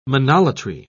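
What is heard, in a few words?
"monolatry", British English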